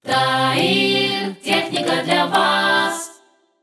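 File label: Russian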